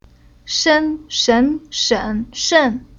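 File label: "Shen", Chinese syllable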